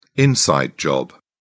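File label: "inside job", British English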